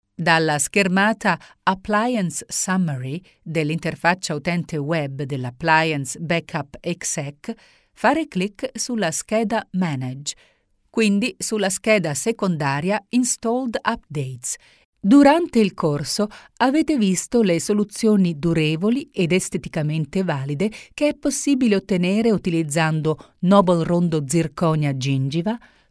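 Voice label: Italian, female, e-learning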